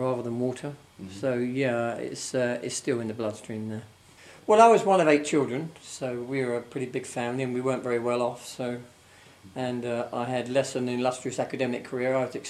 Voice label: London Natural Conversation, dialect samples, england; London – Bromley; A male university student in his mid-twenties.; London 1